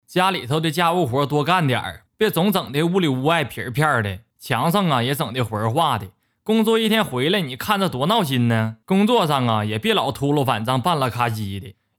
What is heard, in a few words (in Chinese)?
东北话 方言